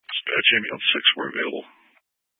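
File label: Fire Dispatch, scanner audio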